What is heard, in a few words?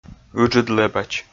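"ыджыд лэбач", Komi, male; kpv audio dict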